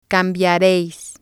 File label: "cambiareis", spanish